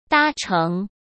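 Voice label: "搭乘", Mandarin Chinese; hsk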